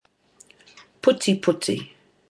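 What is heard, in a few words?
"putiputi", Māori; flower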